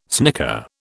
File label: "snicker", British